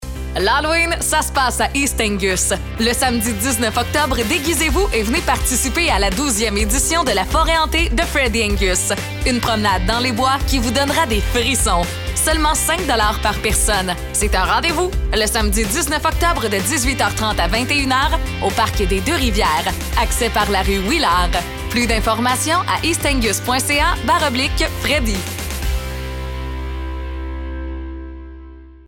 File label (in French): Publicité radio Forêt hantée; Forêt hantée de Freddy Angus